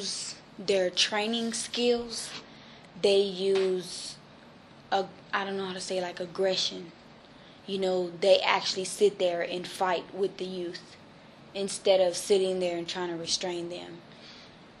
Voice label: girls voices